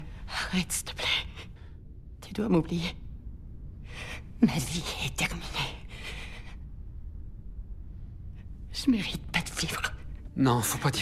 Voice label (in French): doublage; falling water